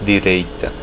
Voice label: right, portuguese